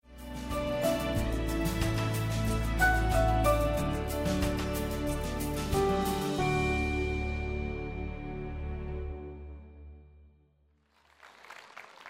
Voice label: English Sermon